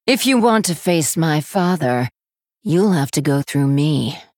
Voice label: Female Human

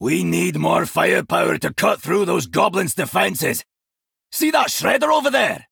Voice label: Male Dwarf; Tavish

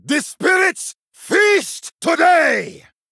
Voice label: Male Troll Play

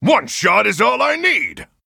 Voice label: Human, Emote Attack

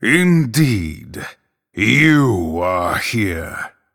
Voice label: Male Night Elf GREETINGS; MIRROR

